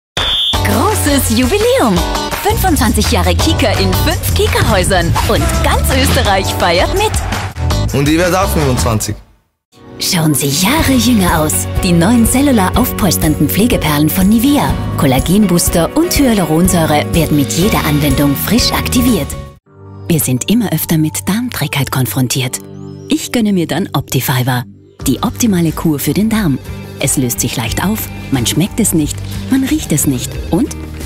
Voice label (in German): Werbung